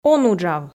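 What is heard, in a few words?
"он уджав", Komi, female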